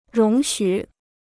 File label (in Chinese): "容许", Chinese